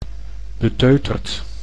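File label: "beteuterd", Dutch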